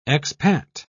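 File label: "expat", British English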